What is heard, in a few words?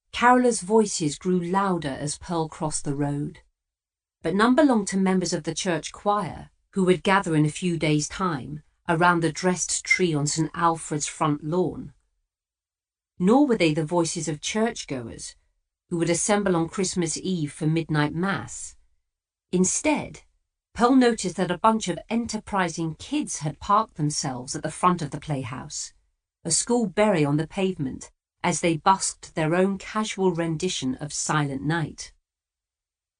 Audiobook; Murder On Sea